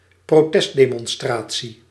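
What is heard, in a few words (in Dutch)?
"protestdemonstratie", Dutch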